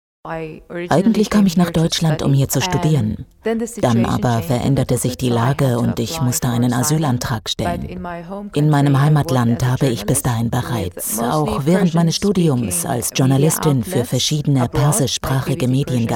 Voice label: voiceover